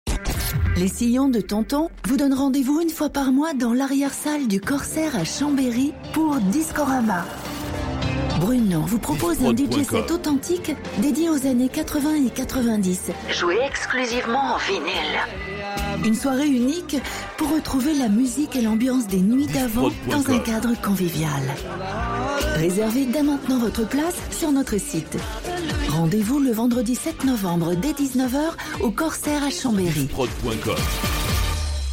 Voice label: Spot Soiree; Discorama Chambery

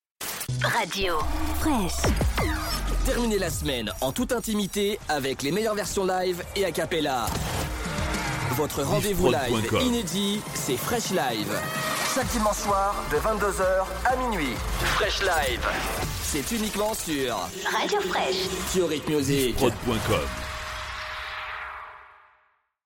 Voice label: Radio Promo Live Concerts; FreshLive

